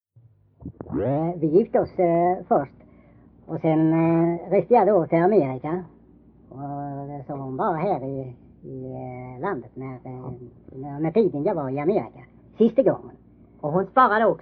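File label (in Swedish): Kinnared Halland, dialekter, svenska sagor; Folkminnen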